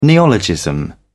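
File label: "neologism", British English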